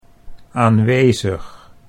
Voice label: "aanwezig", Dutch

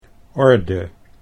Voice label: "orde", Dutch

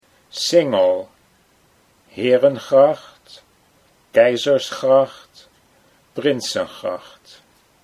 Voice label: "Singel Herengracht", Dutch

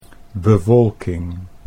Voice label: "bewolking", Dutch

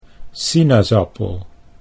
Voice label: "sinaasappel", Dutch

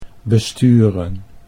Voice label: "besturen", Dutch

